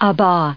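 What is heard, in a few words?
"aba", US English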